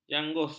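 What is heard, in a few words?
"janggus", Malay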